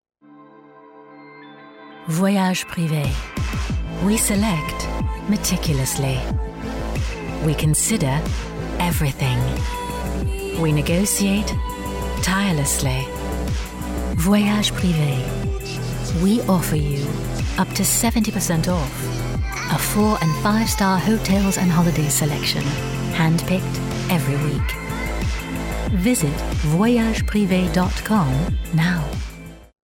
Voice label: UK; Voyage Privé

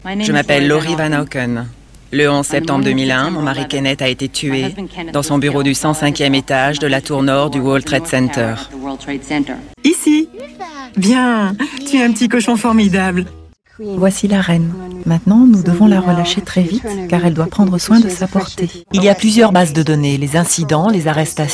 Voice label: Medley Voice Over

